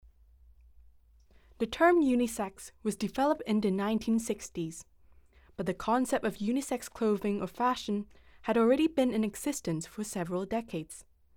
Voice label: English, audio guide

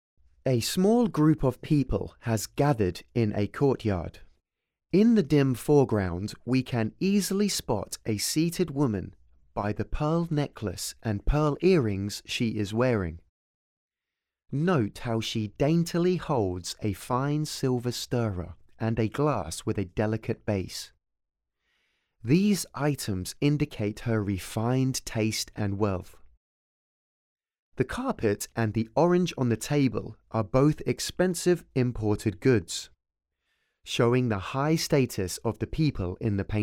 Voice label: English, audio guide

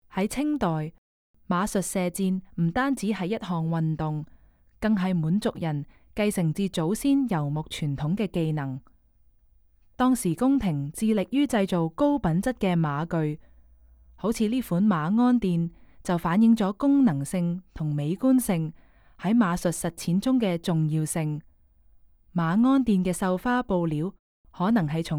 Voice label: Cantonese, audio guide; versailles

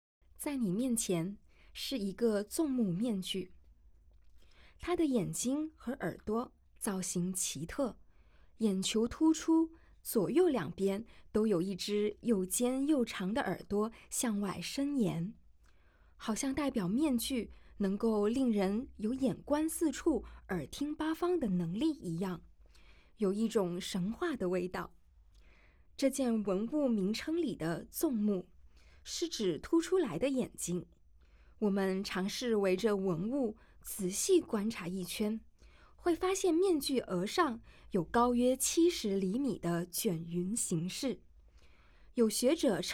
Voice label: Mandarin, audio-guide; gazing at sanxingdui

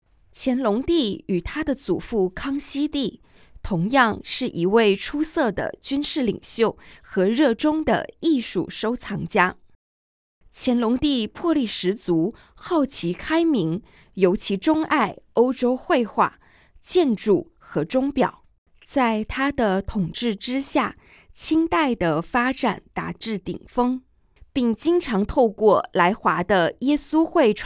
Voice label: Mandarin; audio guide; versailles

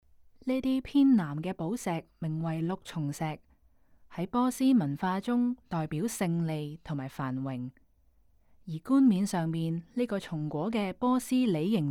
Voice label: Cantonese, audio guide; cartier and women

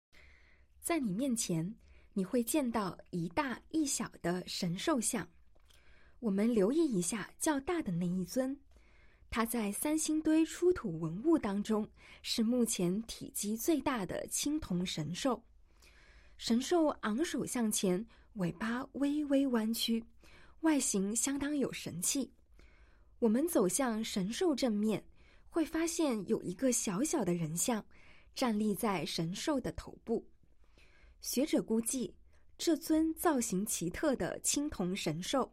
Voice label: Mandarin, audio-guide; gazing at sanxingdui

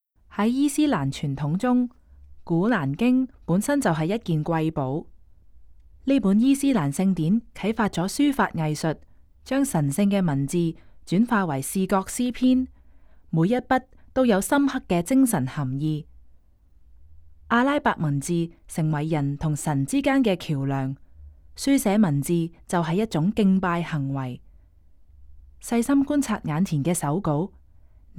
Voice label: Cantonese, audio guide; 《古蘭經》; 第27份副本，哈利勒．本．哈桑抄寫; 鄂圖曼土耳其; 1742年; 多哈伊斯蘭藝術博物館

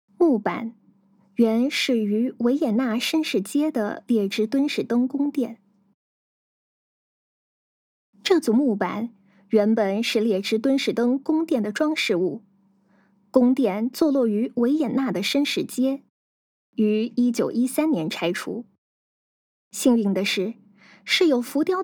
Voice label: Putonghua

